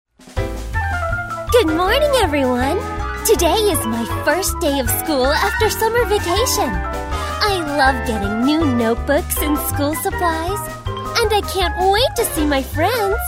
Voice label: Character, USA F; narrator